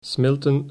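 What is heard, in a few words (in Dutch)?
"smelten", Dutch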